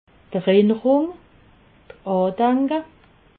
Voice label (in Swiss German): "erinnerung", alsace